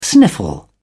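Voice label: "sniffle", British English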